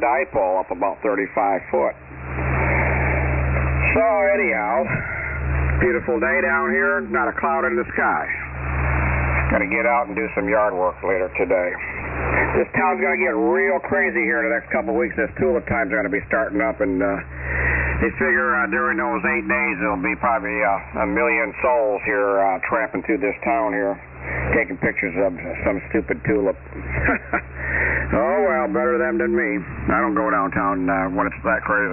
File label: Yaesu FT-101B D-104 Mic & Alpha 76A Amp; Holland, Mi.